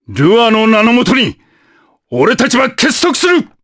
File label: Vox Skill jp; Baudouin; kingsraid